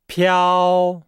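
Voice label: "piao", Chinese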